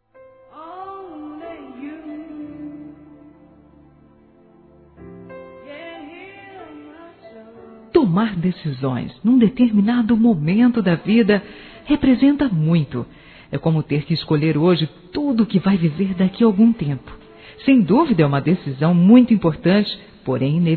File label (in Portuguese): Noivado Feminino